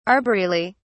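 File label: "arboreally", British English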